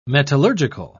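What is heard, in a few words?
"metallurgical", English, British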